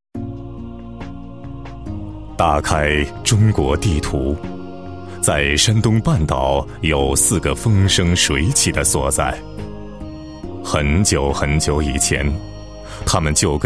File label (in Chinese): 男 专题 故事 娓娓道来, 国语男声配音, 浑厚; 水浒